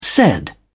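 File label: "said", British English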